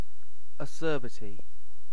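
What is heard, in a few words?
"acerbity", British English; pronunciation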